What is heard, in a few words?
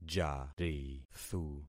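"jarithu", concatenated syllables; Affixing experiment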